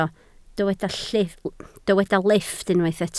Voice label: "lifft", Welsh